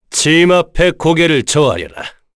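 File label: Vox Victory, Korean; Kain; kingsraid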